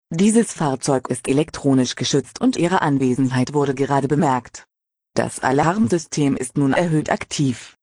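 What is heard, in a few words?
German, camper alarm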